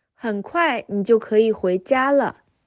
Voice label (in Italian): Cinese